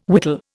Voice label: "whittle", Indian